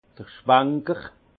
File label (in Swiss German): "schwanker", alsace; vin; nettoyeur de cuves